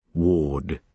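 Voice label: "ward", British English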